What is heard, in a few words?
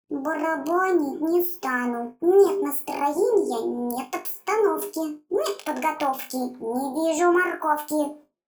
cartoon voice; cartoon voice in adobe audition